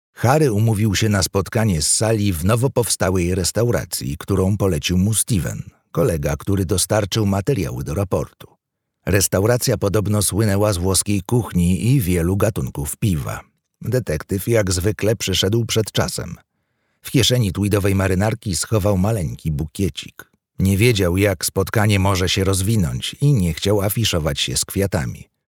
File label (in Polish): audiobook